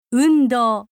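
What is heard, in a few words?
"undou", Japanese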